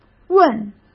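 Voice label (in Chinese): "问", Mandarin Chinese; hsk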